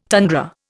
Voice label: "tundra", Indian